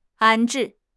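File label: "安置", Chinese; HSK6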